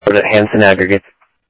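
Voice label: Sheriff West County, scanner audio trunk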